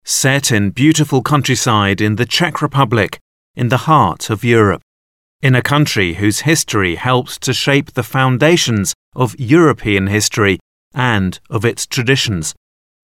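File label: male English documentary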